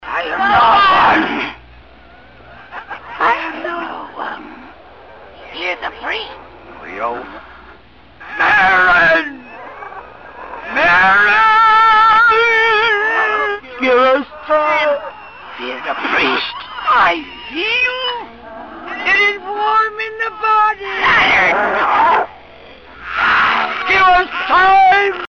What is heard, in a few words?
gibberish rev